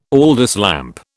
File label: "Aldis lamp", British